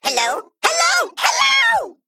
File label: Male Mech, FIRE FESTIVAL; en; AnnoyO